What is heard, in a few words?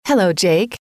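"hello", female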